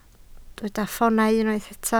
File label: "ffoonau", Welsh